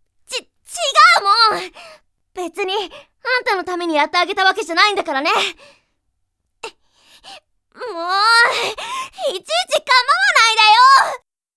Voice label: girl